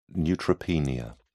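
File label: "neutropenia", British English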